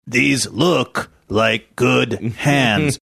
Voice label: gruff; these look like good hands